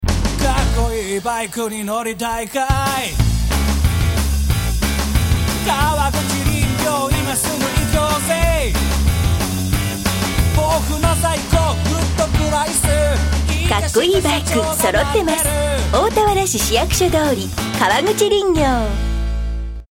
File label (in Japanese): ラジオCM